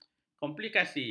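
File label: "komplikasi", Malay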